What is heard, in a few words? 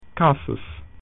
"accident", latin